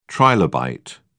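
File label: "trilobite", British English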